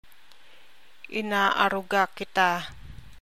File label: Tagalog Translation Inaaruga kita.